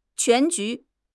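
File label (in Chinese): "全局", Chinese; HSK; HSK6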